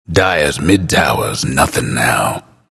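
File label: announcer tower fall dire mid; Bastion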